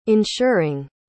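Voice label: "ensuring", English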